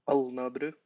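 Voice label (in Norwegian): "Alnabru", Norwegian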